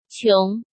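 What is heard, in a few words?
"Qióng", Chinese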